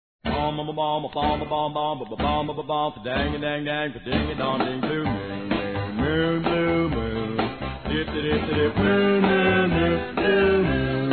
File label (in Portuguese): FILHA CRIANÇA F; Aniversários; Aniversários diversos; fem